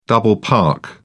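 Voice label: "double park", British English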